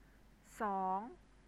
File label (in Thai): "sawng", Thai